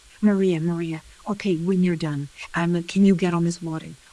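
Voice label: voice acting, es, female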